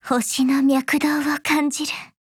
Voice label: LEVEL UP, JP; hubble

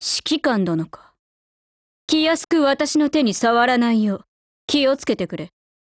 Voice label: DIALOGUE JP; Weaver

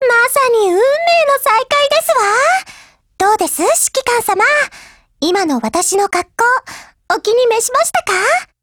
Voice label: JP; M1911Mod

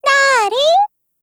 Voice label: PHRASE, JP; M1911

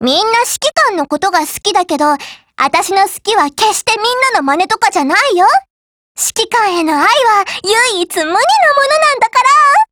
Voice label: JP; P90; DIALOGUE WEDDING

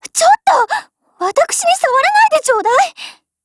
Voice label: DIALOGUE, JP; STG44

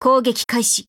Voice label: Voice, JP; Alva

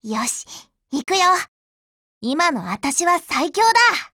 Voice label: JP; G11Mod; GO ATTACK

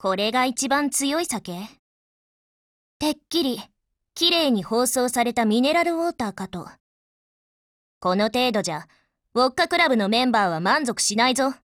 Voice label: dialogue JP

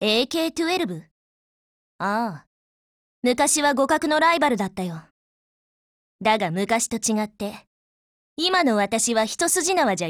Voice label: DIALOGUE JP

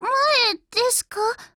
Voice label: ATTACK, JP

